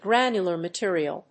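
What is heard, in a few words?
"Granular material", English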